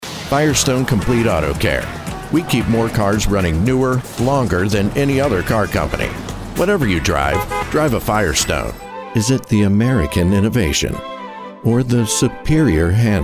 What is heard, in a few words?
Commercial